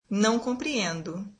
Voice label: brazilian I don't understand